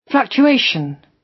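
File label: "fluctuation", British English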